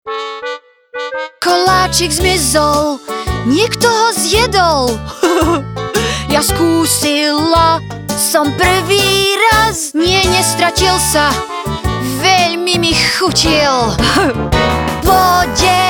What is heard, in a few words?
slovak impersonations; Vereinigtes Königreich